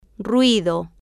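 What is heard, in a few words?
"ruido", Spanish, female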